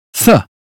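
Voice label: British phonetics sound think; 英语音标发音（剑桥版）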